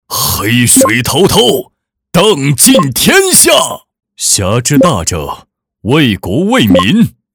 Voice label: 男 游戏喊招

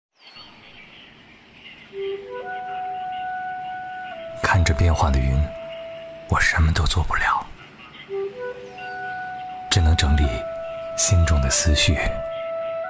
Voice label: 男, 视频, 宣传广告记录篇

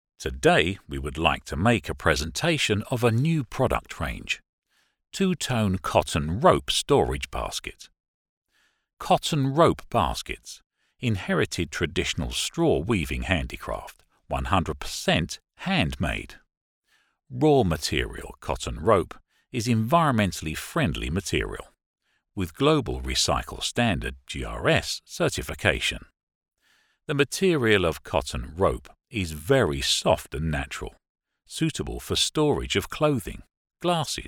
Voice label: 电影 试音

